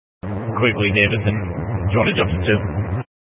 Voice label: Sheriff Dispatch; Mesa County